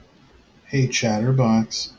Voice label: hey chatterbox, en-us